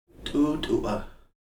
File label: star, Heiltsuk